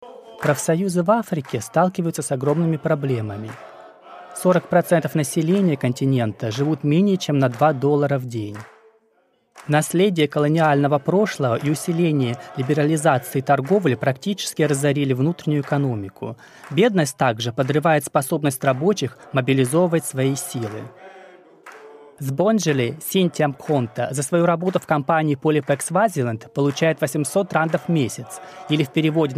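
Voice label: Russian corporate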